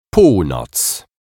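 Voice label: "polnoc", Polish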